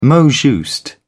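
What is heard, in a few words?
"mot juste", British English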